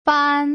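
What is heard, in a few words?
"fan", Mandarin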